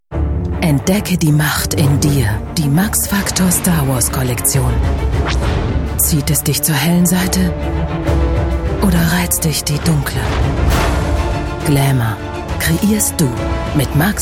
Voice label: Spots, frauen; MaxFactor; StarWars

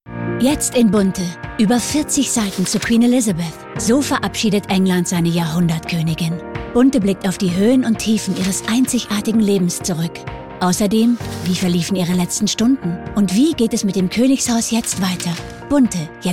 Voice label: Spots OFF, frauen; Bunte Heft

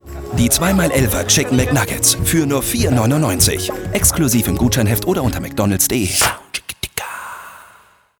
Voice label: Spots OFF, maenner; McDonalds FamilyOffer

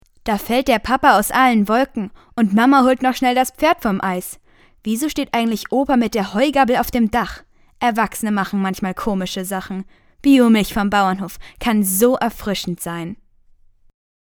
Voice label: Spot, maedchen; Biomilch

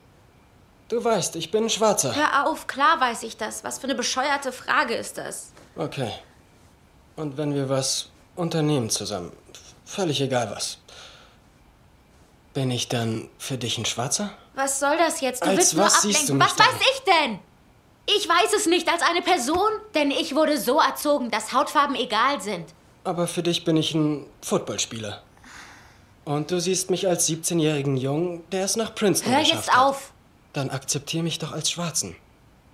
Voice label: Synchron, maenner; little fires everywhere

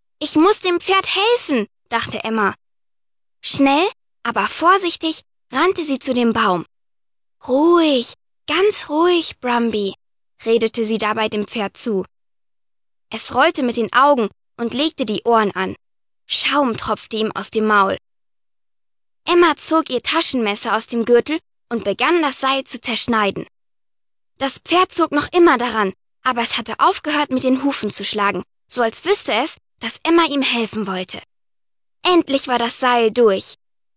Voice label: maedchen; TipToi; Reiterhof

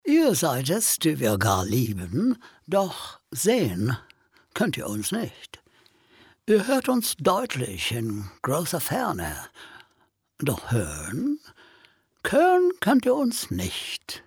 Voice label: Akzente, maenner

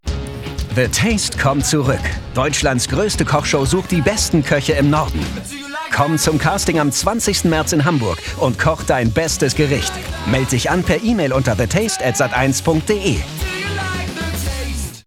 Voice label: Spots OFF, maenner; TheTaste

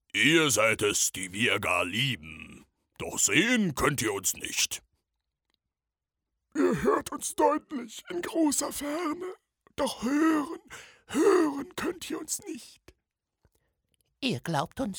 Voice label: verfaelscht, maenner

